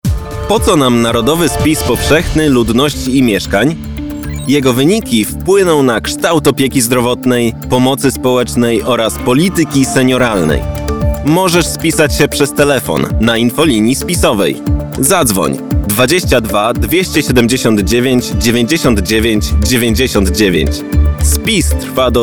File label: radio spot